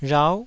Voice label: "rhau9", taiwanese